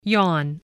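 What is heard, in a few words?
"yawn", English word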